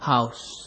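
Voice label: "house", Nigerian Pidgin, male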